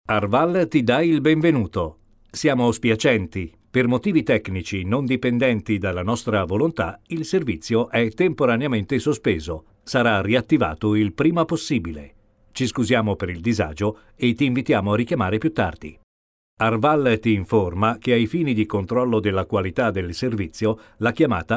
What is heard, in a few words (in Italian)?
segreteria telefonica IVR; Voice Mail; Arval